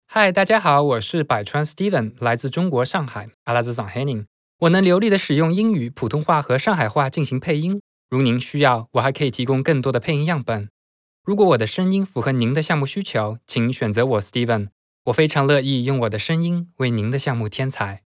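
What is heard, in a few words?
Chinese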